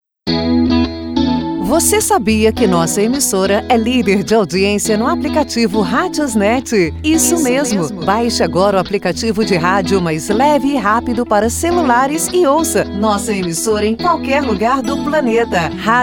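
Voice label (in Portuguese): Você sabia nossa emissora lider, feminino; RadiosNet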